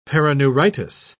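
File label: "perineuritis", British English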